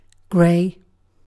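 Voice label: "gray", British English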